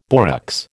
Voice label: "borax", American